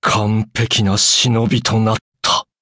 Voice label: upgrade, ja; Cookie Run